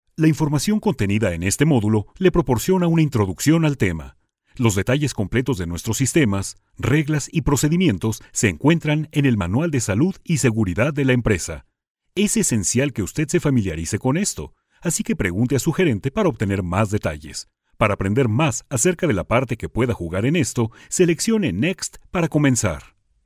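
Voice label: Spanish Latin America male voice over, narrative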